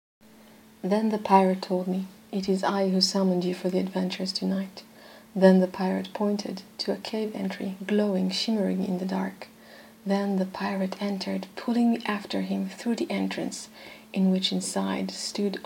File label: English; wissenschaftliche kurzgeschichten